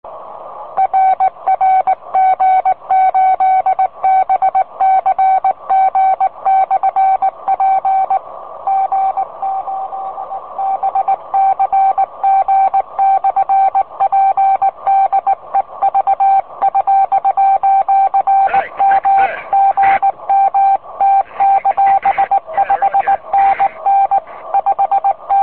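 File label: amateur radio contact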